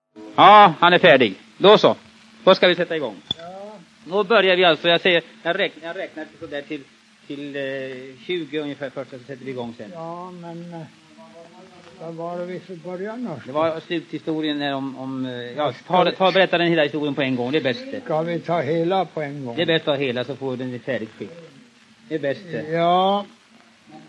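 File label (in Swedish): Huddunge Uppland, dialekter; Folkminnen; Svenska sagor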